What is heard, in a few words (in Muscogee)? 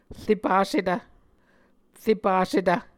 "repassetv", muscogee